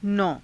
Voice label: "nau", Hindi; ９，９つの; नौ

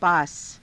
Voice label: "paasa", Hindi

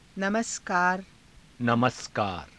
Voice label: "namaskaara", Hindi

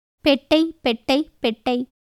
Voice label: Tamil

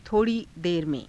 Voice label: "tho rii dera me^", hindi

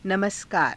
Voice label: "namaskaara", Hindi

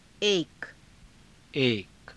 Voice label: "eka", hindi; एक; １，１つの

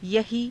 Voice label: "yahii", Hindi

